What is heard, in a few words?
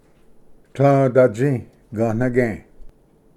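"tlvdatsi gvnage", Cherokee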